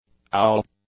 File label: "owl", English; nouns